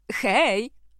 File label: bonjour, voix